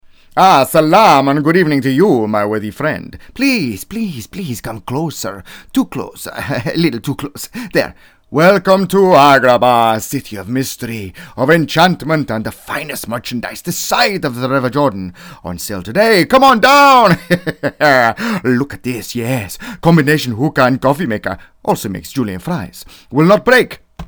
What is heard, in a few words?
Cartoon